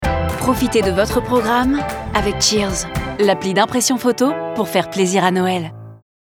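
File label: billboard; CHEERZ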